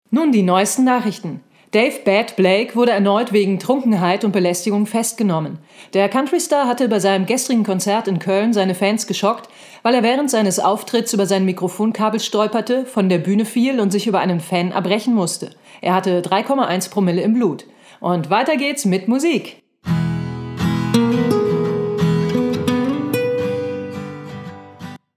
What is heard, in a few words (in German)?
Sprecherin Radioansage